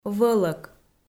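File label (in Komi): "вӧлӧк", Komi-Zyrian, female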